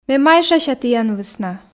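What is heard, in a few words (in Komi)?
ме майшася тіян вӧсна, Komi, female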